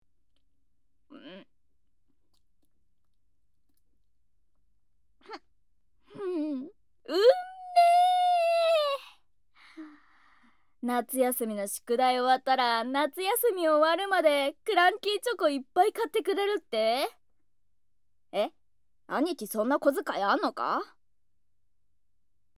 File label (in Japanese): もぐもぐ部分パターン, ノイズあり; 弟が兄貴にべったり